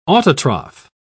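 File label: "autotroph", English, British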